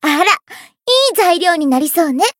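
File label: Voice win, Japanese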